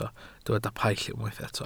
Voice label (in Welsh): "paill", Welsh